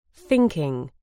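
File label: "thinking", British English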